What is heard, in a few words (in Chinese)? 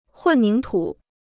"混凝土", Chinese